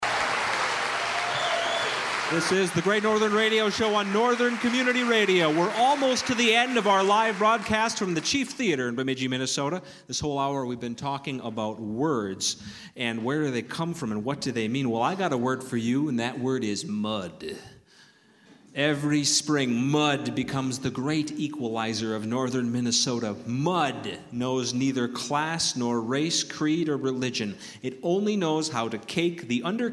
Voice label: monologue mud